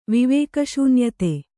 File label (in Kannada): "ವಿವೇಕಶೂನ್ಯತೆ", Kannada